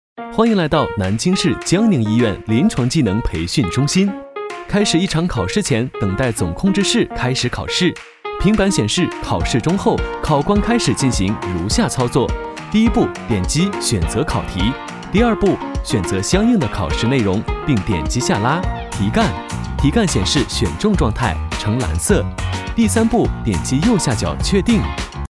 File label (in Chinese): 男 MG解说; 临床技能培训中心